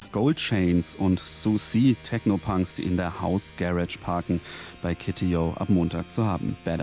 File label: radio bla bla munich